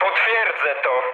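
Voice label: player killed enemy mood med, speech Polish; War Thunder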